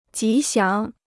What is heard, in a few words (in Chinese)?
"吉祥", Chinese